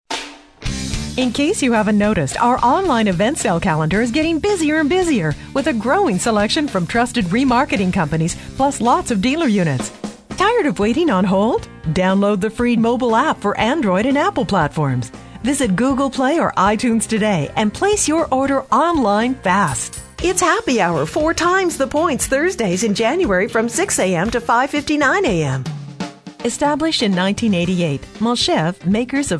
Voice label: female voices